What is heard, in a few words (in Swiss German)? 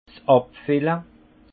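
"abfille", Haut Rhin; vin; embouteillage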